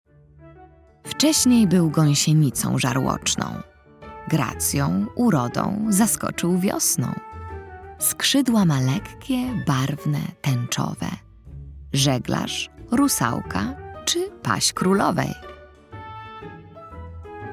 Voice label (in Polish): narracja; czasopismo mis; wiosna; zagadka